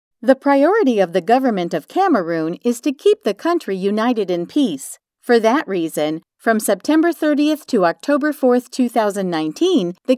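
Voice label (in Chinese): 外籍女声 干声 美式英语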